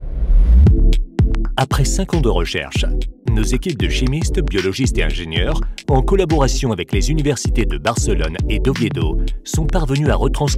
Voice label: corporate cosmetics; arkhe cosmetics